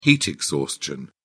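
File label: "heat exhaustion", British English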